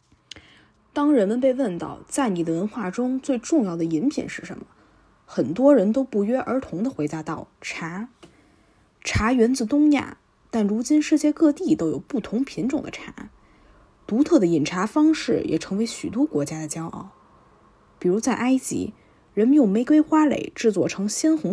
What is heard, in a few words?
Mandarin audio; Tea